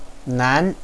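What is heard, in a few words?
"nan2", Chinese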